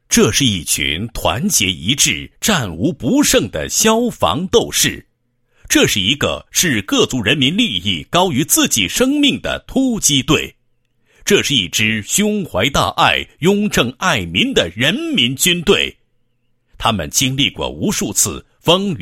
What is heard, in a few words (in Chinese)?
男 宣传片, 消防大队形象宣传; 托克逊县消防大队形象宣传